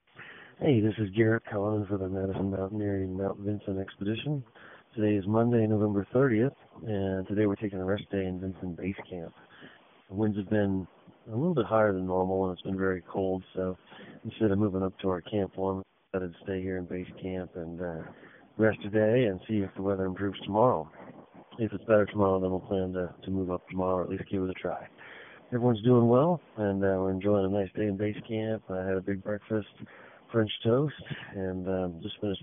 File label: voicemail